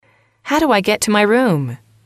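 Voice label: "how do I get to my room", English, female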